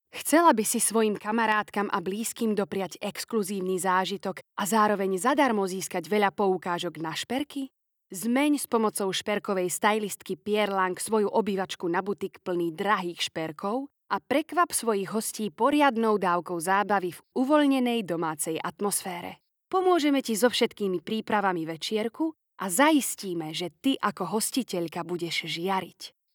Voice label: slovak commercial